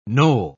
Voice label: "knoll", pronounce